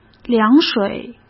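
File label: "凉水", Mandarin Chinese; chinese flashcards; hsk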